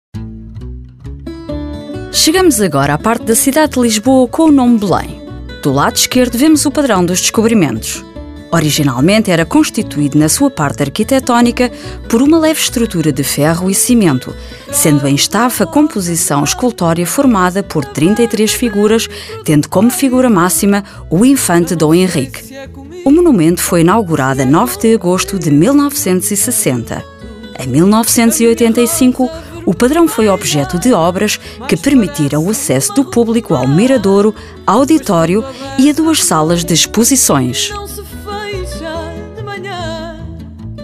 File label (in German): portugiesisch audioguide